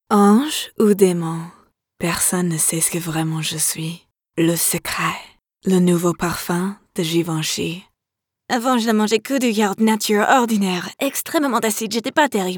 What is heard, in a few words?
French sample